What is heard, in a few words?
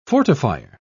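"fortifier", English, British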